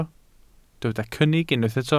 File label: "cynnig", welsh